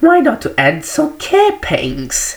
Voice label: soldier voice

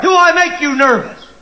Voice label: chat; sgthartmann

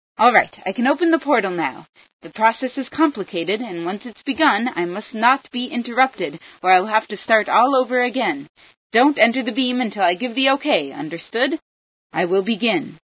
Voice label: portal, female

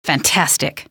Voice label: cheer, citizen voice

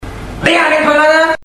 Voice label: chat

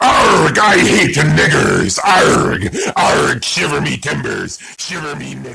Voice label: pirate

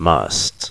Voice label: "must", word

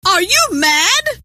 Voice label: friendly fire, scientist female

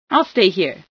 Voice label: i stay, female

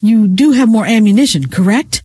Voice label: reload, scientist female

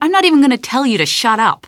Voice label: taunt, citizen voice

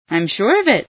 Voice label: im sure, female sci

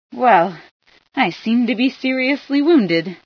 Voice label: i wounded, female

